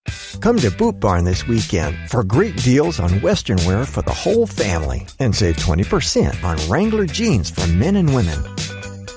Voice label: believable retail